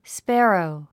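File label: "sparrow", English; 発音 spǽrou スパロウ; 意味 スズメ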